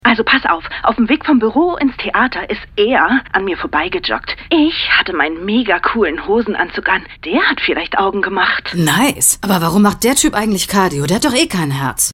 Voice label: Spieltake Frau; Tom Tailor

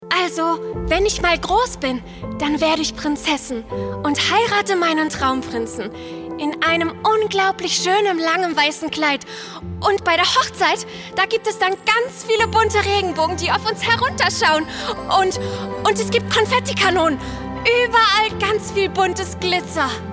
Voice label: Sprecherdemo Prinzessin

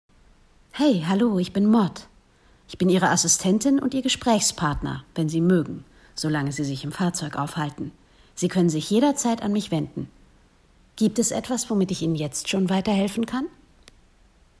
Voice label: KI Stimme; Mercedes Forschungsprogramm

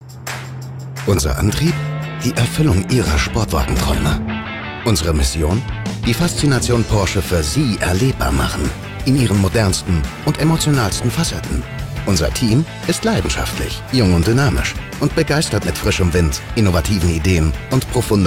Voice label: Imagefilm; Porsche Zentrum Lueneburg

